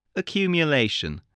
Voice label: "accumulation", English voice